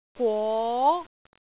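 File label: "gwo2", Cantonese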